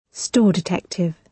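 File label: "store detective", British English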